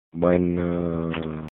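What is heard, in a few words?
"Buenoooo", Spanish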